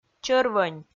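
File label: "чӧрвӧнь", Komi, female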